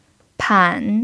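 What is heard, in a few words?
"pan" third tone, chinese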